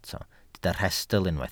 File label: "rhestl", Welsh